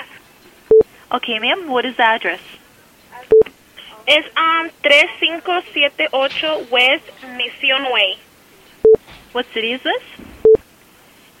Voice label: 911 House Fire Spanish without interpretation